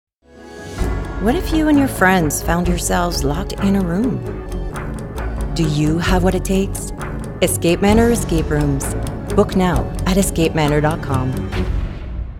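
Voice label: English Commercial brief; Demo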